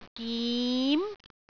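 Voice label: "gim2", Cantonese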